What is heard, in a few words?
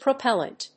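"propellent", English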